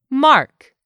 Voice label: "mark", English; 3000 words

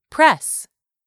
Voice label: "PRESS", English; 3000 words

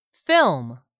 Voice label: "film", English; 3000 words

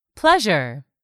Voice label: "pleasure", English; 3000 words

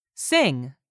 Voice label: "sing", English; 3000 words

